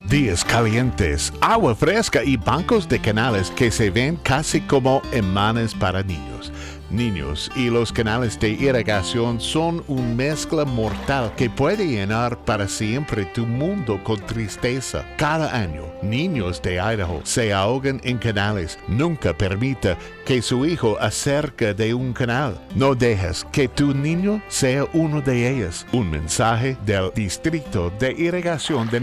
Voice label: Spanish